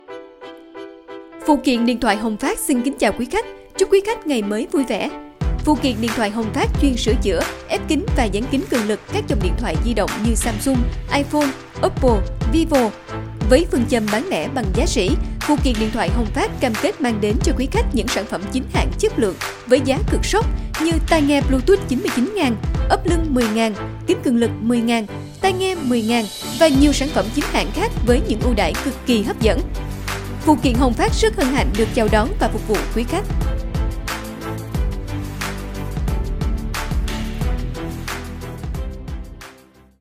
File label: Voice, voice over, quang cao; Phát loa cửa hàng; Hong Phat